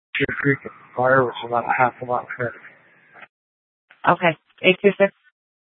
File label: Sheriff, scanner